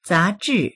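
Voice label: "za2zhi4", Mandarin Chinese; zázhì; 杂志; 雑誌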